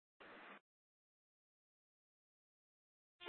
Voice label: Light Rail Ops, scanner; Valley Metro Public Transit System; Regional Wireless Cooperative (RWC) - Simulcast F- Tempe; Light Rail Ops 2